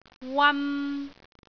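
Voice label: "wan3", Cantonese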